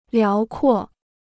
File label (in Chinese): "辽阔", Chinese